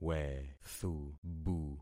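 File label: "wethubu", concatenated syllables; Affixing experiment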